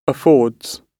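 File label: "affords", British English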